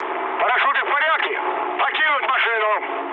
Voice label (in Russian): bailout, mood low, speech ru; War Thunder